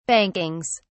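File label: "bankings", British English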